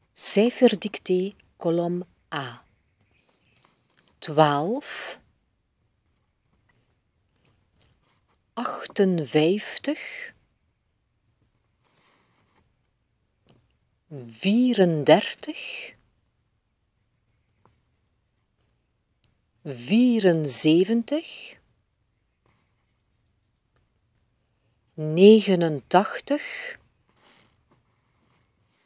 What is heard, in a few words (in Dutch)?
cijferdictee